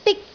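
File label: "dik1", Cantonese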